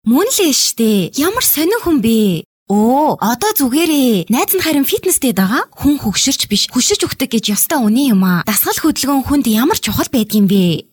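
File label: Mongolian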